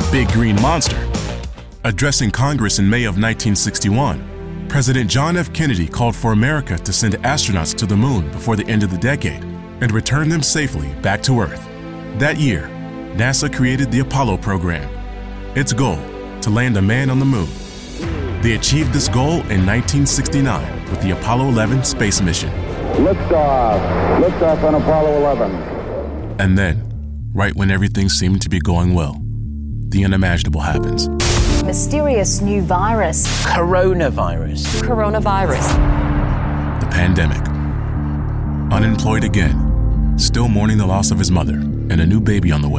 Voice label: african american narration; Baritone, confident, cool, smooth, believable, friendly, Millennial, and warm.